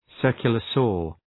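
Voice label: "circular saw", English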